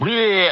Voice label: "bleh", cartoon